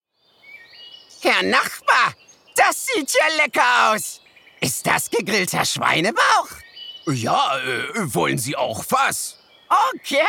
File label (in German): brutto (werbung charge)